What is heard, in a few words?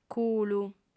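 "culu", female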